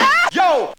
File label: "ah yo", SCRATCH; Voice; ooh ahh